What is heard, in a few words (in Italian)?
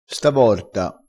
"stavorta", crespinese